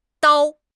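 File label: "dao1", Chinese; HSK4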